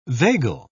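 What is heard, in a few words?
"vagal", British English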